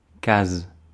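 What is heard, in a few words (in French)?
"case", French; Mots francais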